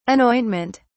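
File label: "anointment", British English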